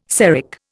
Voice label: "Seric", Indian